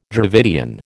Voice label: "Dravidian", American